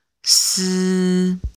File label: chinese pronunciation zi ci si